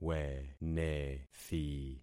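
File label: "wenethi", concatenated syllables; Affixing experiment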